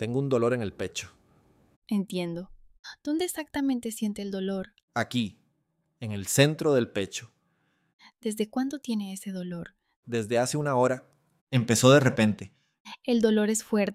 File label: Dialogue Chest Pain, Spanish